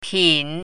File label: "pin3", Chinese pinyin